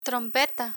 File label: "trompeta", Spanish; trompeta (f) - trumpet